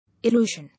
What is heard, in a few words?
"Illusion", English